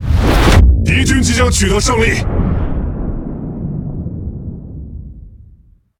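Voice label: Speech Domination Enemy Close Win, Chinese; World Of Warships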